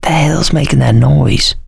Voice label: heard special, survivor voice; Left 4 Dead 2; mechanic